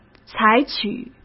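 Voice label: "采取", Mandarin Chinese; hsk; chinese-flashcards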